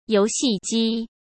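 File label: "游戏机", Mandarin Chinese; chinese flashcards; hsk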